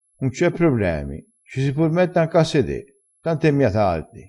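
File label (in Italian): "tant e mia", vocabolario dialettale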